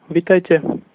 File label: welcome, slovak